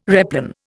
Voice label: "replan", Indian

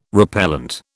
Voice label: "repellent", British

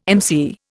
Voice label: "emcee", Indian

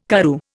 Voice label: "Karoo", Indian

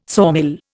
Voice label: "sawmill", Indian

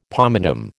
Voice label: "pomatum", American

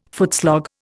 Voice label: "footslog", Indian

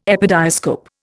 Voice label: "epidiascope", Indian

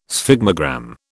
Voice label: "sphygmogram", British

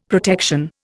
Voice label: "protection", Indian; Dictionary

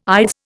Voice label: "ides", Indian

